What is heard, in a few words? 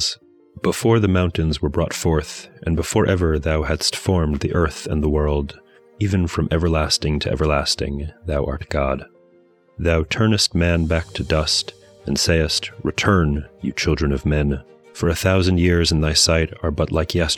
poetry and prayer psalm english; Meir Soloveichik